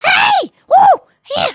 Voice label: volunteer, emotes